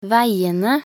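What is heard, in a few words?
"veiene", Norwegian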